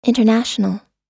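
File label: "international", US English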